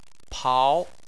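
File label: "pao" second tone, Chinese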